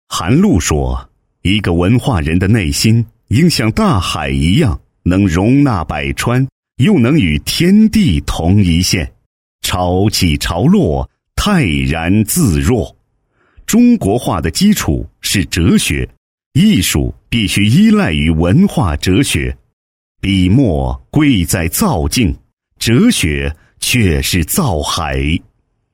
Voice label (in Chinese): 男 专题 哲学 厚重解说, 国语男声配音